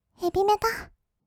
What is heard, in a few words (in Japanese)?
ヘビメタ, kawaii voice; ASMR; 音楽ジャンル